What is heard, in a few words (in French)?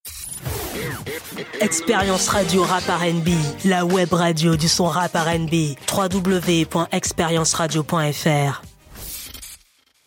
JINGLE RAP RNB Voix masculine jeune homme Posé Affirmé Fierté; n°1 EXPERIENCE RADIO